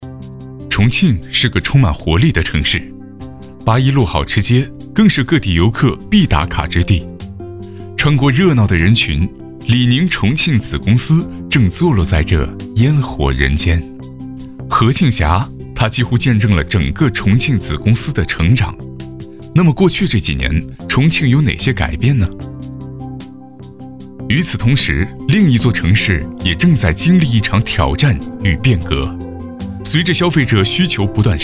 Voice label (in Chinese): 男 重庆旁白